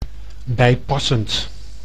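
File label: "bijpassend", Dutch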